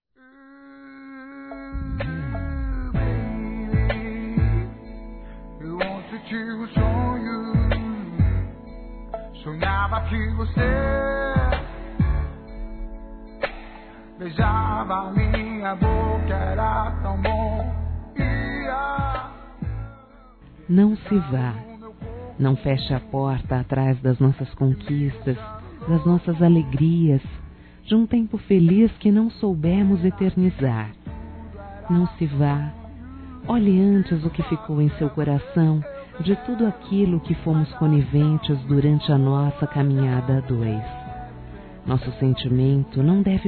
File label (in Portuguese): NÃO SE VÁ (F)